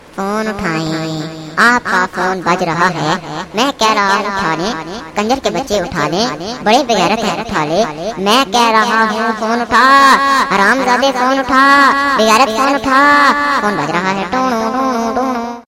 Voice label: Phone Uthaye Aapka Phone Baz Raha Hai Funny Ringtone; Comedy Hindi; Ringtones